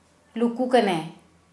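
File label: "hidden", Kera Mundari, female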